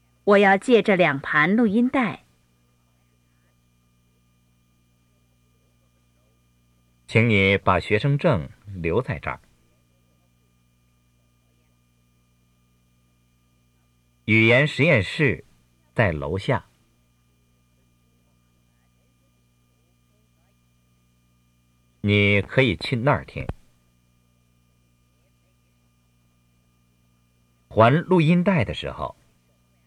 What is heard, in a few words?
Dialogue Slow Speed